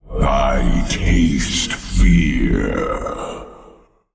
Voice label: Male Old God Attack